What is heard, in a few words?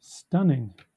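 "stunning" English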